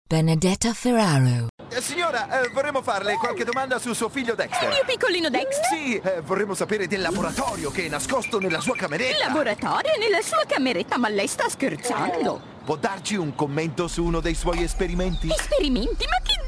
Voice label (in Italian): italian cartoon